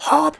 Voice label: jump, Danish; Worms Armageddon; Danish